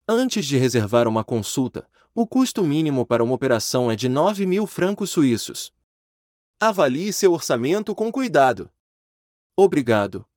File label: Vocal pop up portuguese